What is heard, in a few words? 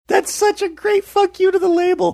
saying thats such a great fuck you cracked high pitched